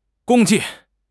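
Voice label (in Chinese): "共计", Mandarin Chinese; HSK6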